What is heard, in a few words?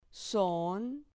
"zon", Spanish